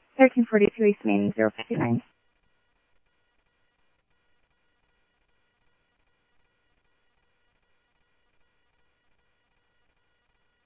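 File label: Mesa police department, scanner audio trunk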